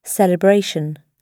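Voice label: "celebration" British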